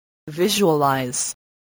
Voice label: "visualize", English

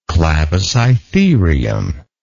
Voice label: "clavicytherium", English

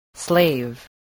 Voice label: "slave", voice, spelling

